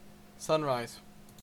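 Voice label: "sunrise" Canadian English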